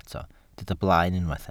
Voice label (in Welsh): "blaen", Welsh